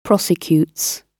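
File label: "prosecutes", British English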